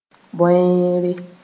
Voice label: "bõeere", Mooré